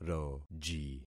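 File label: "roji", concatenated syllables; Affixing experiment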